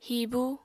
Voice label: "hibu", apatani